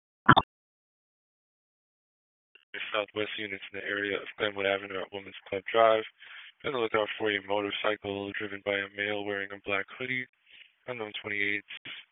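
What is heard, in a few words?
Police Northwest District Dispatch; Raleigh; wake; p25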